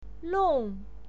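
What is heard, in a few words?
"long", pinyin, fourth tone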